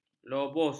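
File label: "lobus", Malay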